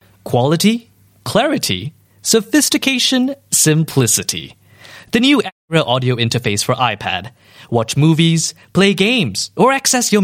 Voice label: English Singaporean Male, Tech Product

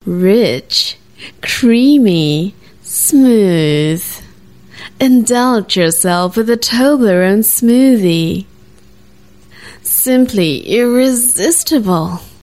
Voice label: TVC, English Singaporean Female

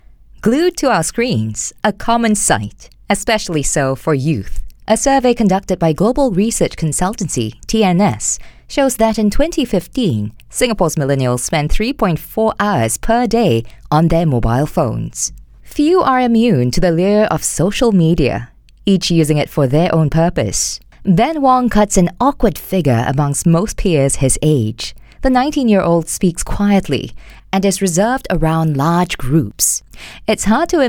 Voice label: Documentary, English Singaporean Female; On The Red Dot

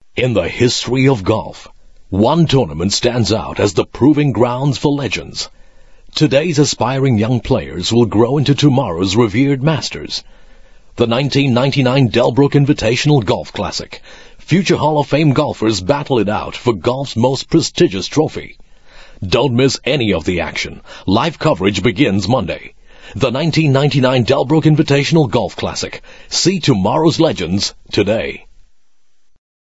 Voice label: English Singaporean Male

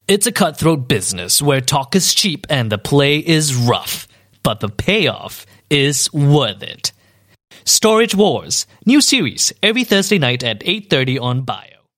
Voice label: TV Trailer Punchy Epic, English Singaporean Male

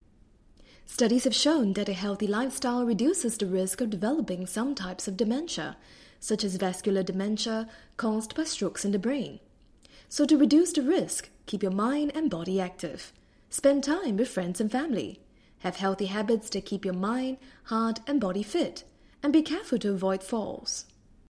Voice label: English Singaporean Female; HPB

